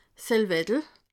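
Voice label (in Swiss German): "salwetel", Alsatian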